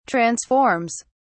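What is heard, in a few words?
"transforms", British English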